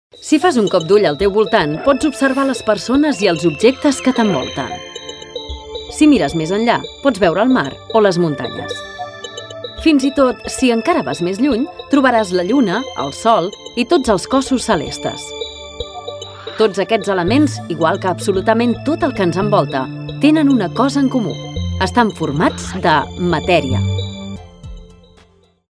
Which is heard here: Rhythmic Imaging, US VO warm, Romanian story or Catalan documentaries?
Catalan documentaries